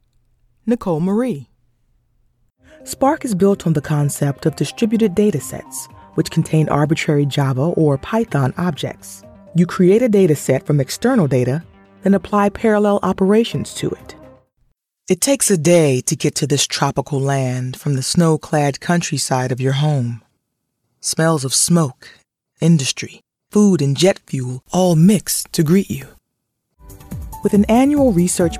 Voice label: english american narration